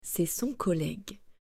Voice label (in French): "Cest son collegue", French